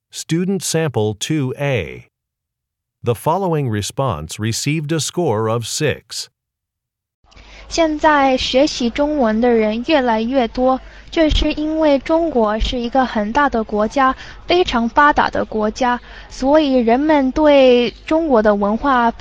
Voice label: chinese conversation